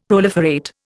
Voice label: "proliferate", Indian; Dictionary